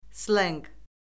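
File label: "slenq", Azerbaijani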